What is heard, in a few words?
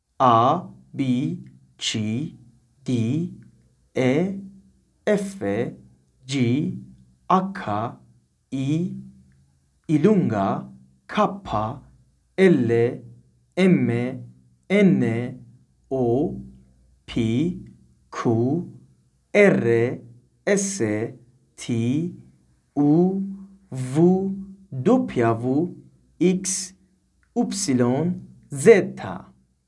italian alphabet